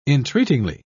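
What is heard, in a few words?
"entreatingly", English, British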